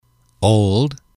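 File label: "old", English, male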